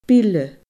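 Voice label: "bile", Scottish Gaelic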